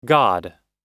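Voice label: "god", English; oxford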